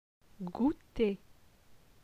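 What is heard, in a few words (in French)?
"Gouter", French; phonetique; les voyelles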